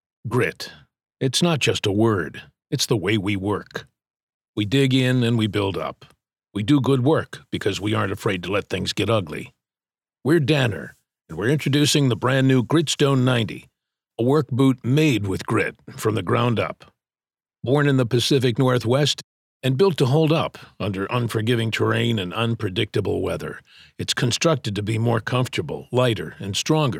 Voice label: Gritty Sound, en-US

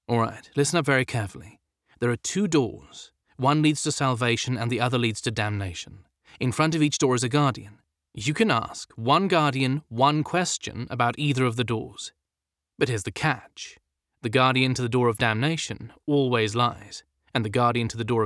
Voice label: British, british english

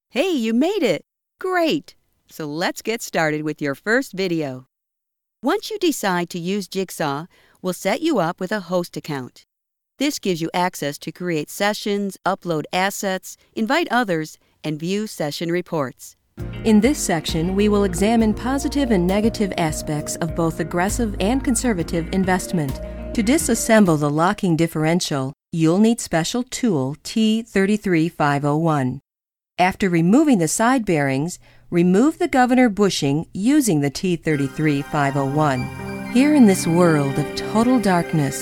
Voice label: eLearning

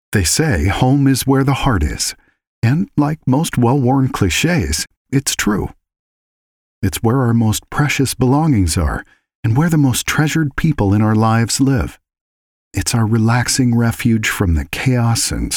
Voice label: NUTURING Promotional, en-US

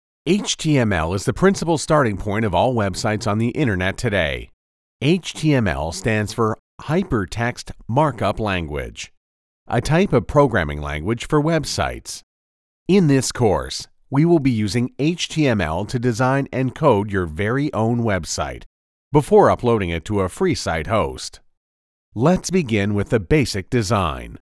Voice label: E Learning

